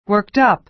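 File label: "worked up", American English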